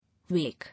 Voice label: "Wake", English